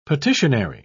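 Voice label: "petitionary", English, British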